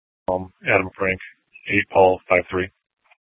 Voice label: Dispatch; Scottsdale

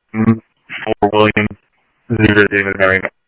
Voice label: Police, scanner

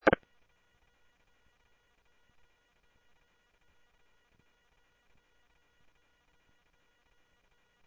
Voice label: Sheriff, scanner audio; SoutheastCenMesa; MCSO - EAST Sheriff-EastCnty

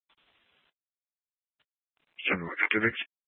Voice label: Superstition Dispatch, Mesa PD

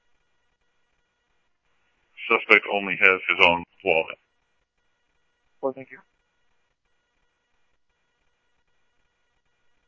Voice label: police scanner; H-Scottsdale; SCTSDLE PD - PD2; ScottsdalePolice

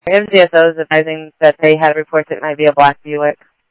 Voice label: Mesa Simulcast, MESA PD, scanner audio

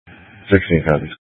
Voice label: Scottsdale Police, Simulcast

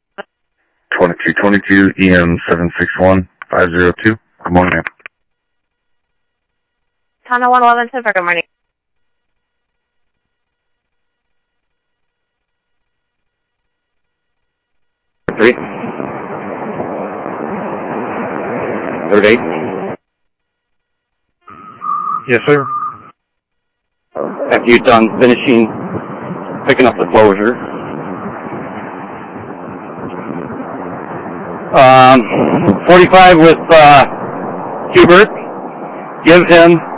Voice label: scanner audio trunk

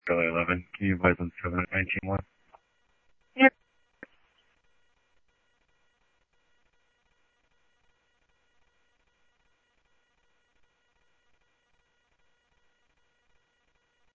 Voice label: Mesa Simulcast police; MESA PD 1 Fiesta; MesaPoliceDep; jumper

